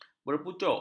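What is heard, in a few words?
"berpucuk", Malay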